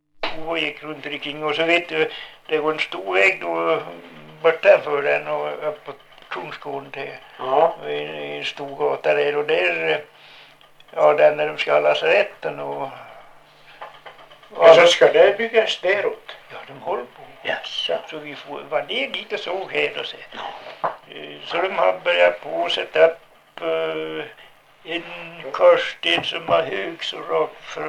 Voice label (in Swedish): dialekter; Folkminnen; Svenska sagor